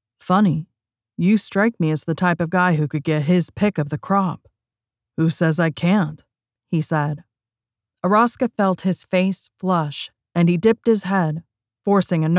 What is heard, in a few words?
Audiobook voicing Male